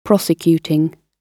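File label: "prosecuting", British English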